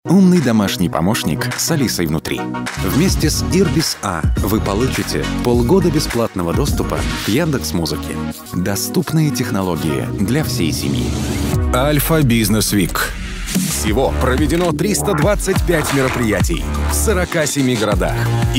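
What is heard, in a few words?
Russian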